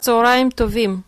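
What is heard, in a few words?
good afternoon, Hebrew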